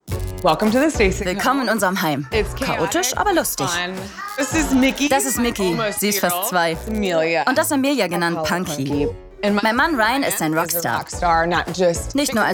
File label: Voice Over, German; Comment (Kommentar), Doku, Audioguide, News